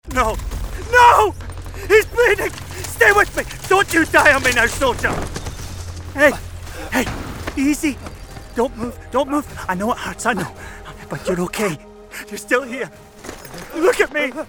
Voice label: Gaming Showreel